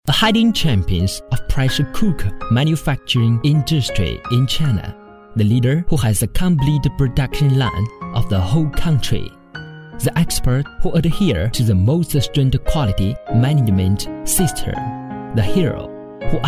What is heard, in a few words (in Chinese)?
英语男, 国际厨具知名品牌宣传片